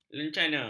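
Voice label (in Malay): "lencana", Malay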